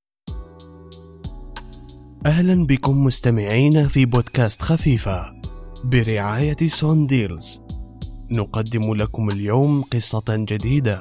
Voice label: arabic others